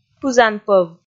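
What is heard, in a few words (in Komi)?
"пызан пӧв", Komi, female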